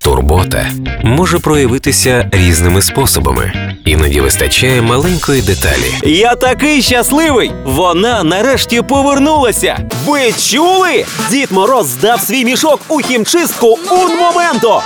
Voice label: Ukr

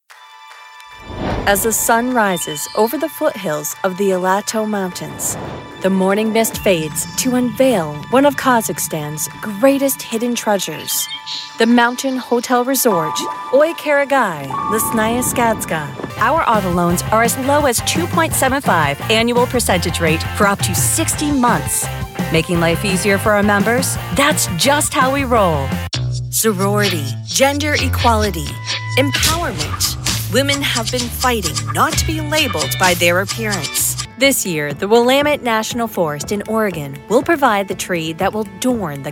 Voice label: american

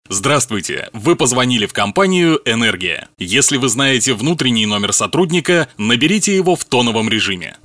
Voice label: ivr Rus

